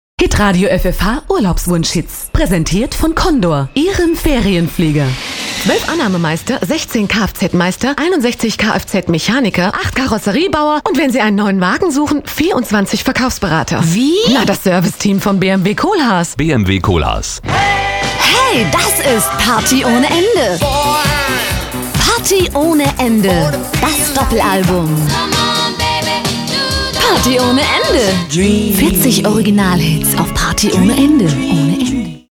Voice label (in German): Sprache Werbung